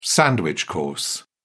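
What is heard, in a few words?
"sandwich-course", British English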